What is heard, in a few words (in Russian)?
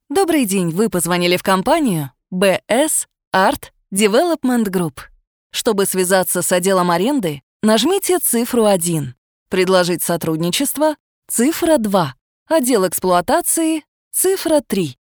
пример автоответчика